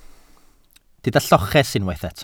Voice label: "lloches", Welsh

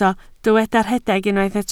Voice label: "rhedeg", Welsh

